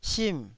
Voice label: "sim4", taiwanese Syllables